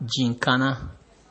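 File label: "ginkana" Nigerian Pidgin, male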